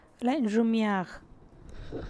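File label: inside of the basket, Teotitlán del Valle Zapotec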